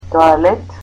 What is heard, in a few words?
"toilet", Macedonian